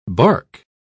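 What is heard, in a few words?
"barque", British English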